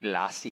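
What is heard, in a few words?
"glossy", US English; pronunciations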